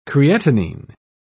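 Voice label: "creatinine", English, British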